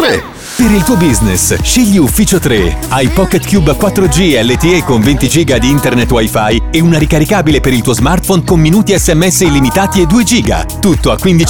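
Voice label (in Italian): meccanico, spot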